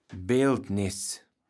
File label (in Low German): "Beeltniß", kaevels platt